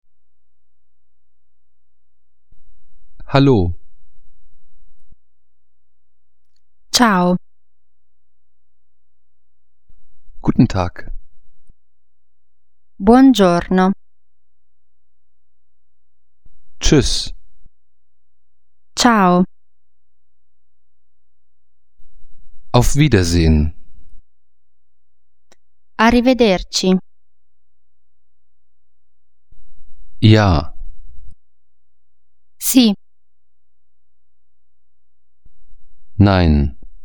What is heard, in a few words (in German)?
italienisch